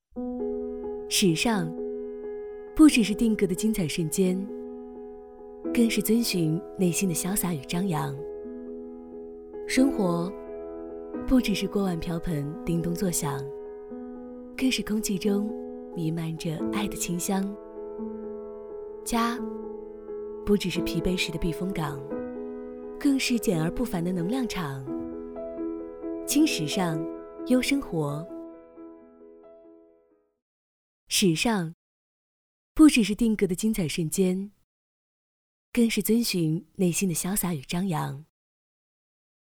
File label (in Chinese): 女 温馨广告 温暖 优雅; 统帅